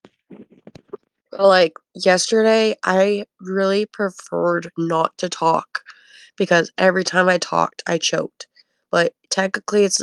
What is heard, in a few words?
voice message